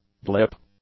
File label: "blip", English; nouns